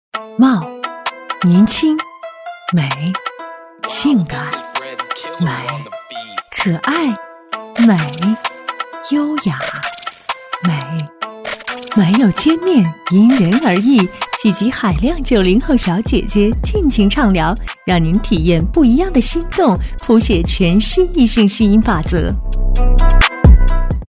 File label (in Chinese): 广告